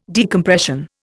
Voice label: "decompression", Indian